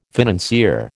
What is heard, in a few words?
"financier", American